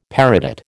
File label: "peridot", American